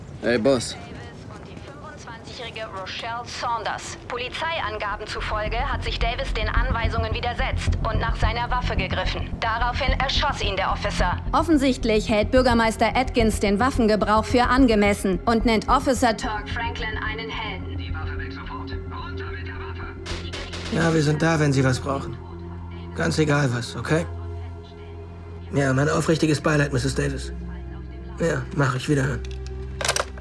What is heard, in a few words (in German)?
Superfly TV Moderatorin; LOFT VOICES